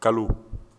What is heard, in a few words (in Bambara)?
"kalo", Bambara; nature